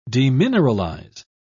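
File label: "demineralize", British English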